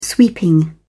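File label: "sweeping", British English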